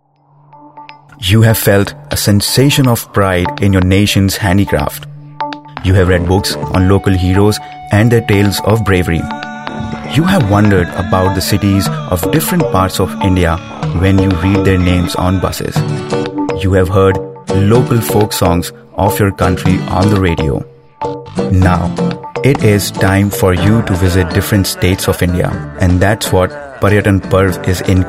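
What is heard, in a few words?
tourism English voice over, male voice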